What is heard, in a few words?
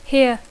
"hear", English